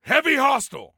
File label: Warn Context Heavy, Soldier Male; Soldier Male 03